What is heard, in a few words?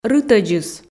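"рытӧдзыс" Komi, female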